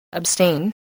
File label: "abstain", English voice